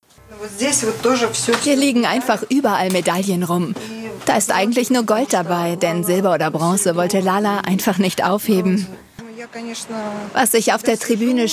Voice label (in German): voice over reportage; arte reportage